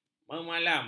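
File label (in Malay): "memalam", Malay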